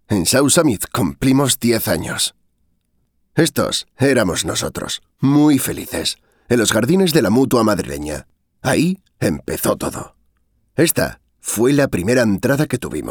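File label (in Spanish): corporativo España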